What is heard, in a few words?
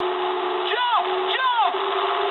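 English bailout mood low; War Thunder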